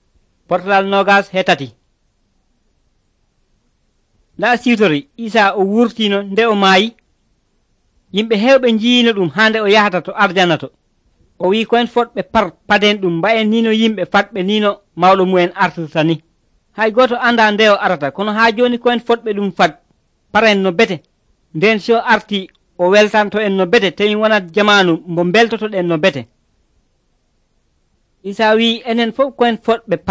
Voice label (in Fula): pulaar fulacunda, drama